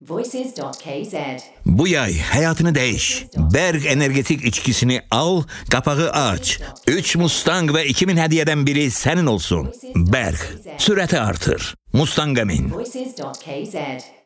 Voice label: Voice aze

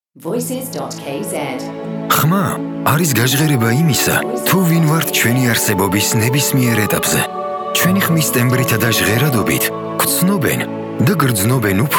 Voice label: man

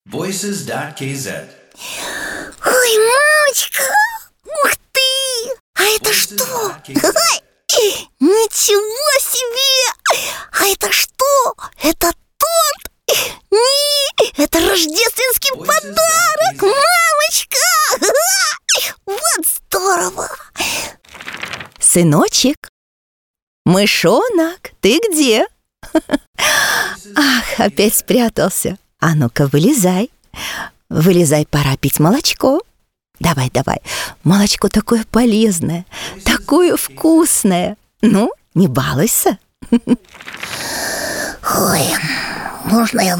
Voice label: ru parody

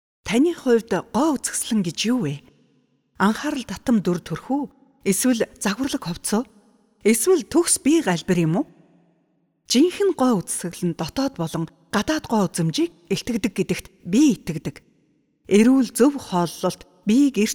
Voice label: 52 years

